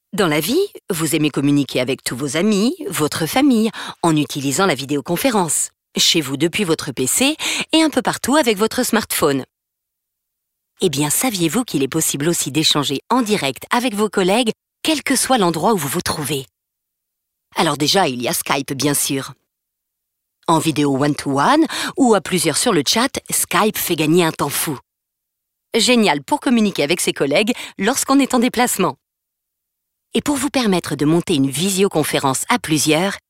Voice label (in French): institutionnel; grdf